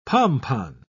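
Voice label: "pompon", British English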